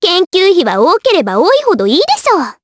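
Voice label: vox dialogue, voices, Japanese; kingsraid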